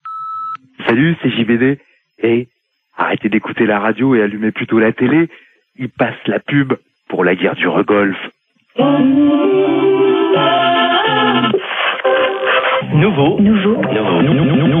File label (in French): Irak repondeur